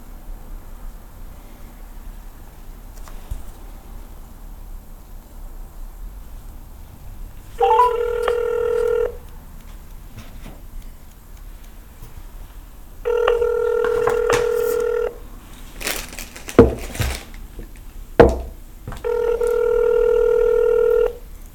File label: Phone Call